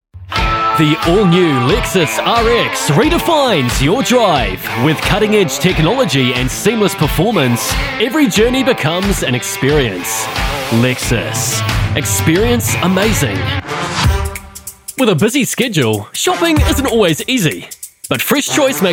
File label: NZ English Commercials